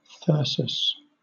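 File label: "thyrsus", British English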